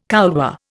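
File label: "couloir", Indian English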